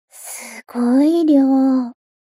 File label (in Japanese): mob 女子 台詞